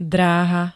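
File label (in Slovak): "dráha", slovenské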